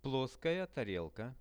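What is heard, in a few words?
"ploskaja tarelka", flat plate, Russian; плоская тарелка; Это плоская тарелка.; posuda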